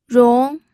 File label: "rong2", pinyin